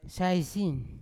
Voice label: "saisin" sour, Yawa